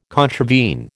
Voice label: "contravene", American